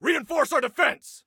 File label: Defend Reinforce, Soldier Male